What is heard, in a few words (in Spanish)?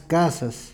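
"casas", Peru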